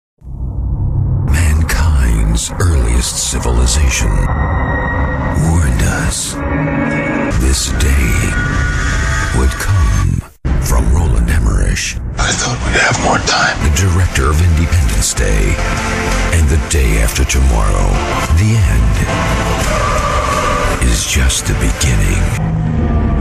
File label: VO MONTAGE